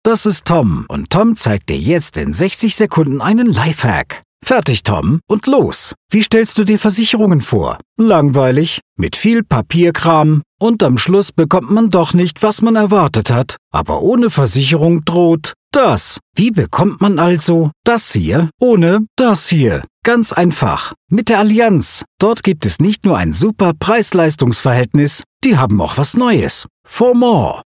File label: Explainer, german